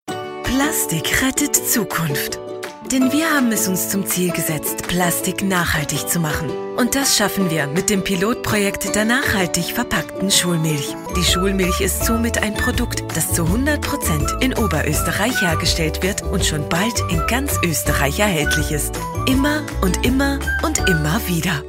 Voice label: informative video German Female Voiceove